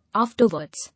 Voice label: "Afterwards", English